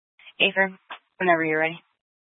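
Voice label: Incident Tactical, scanner audio recording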